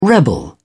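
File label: "rebel", British English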